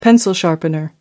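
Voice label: "pencil sharpener", English, British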